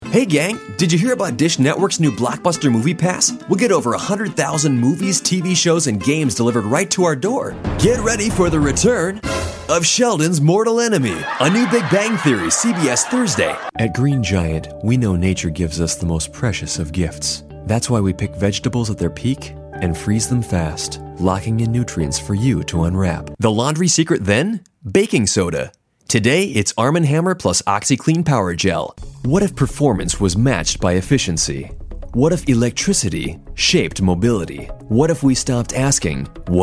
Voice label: Male, commercial